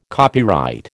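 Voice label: "copyright", American English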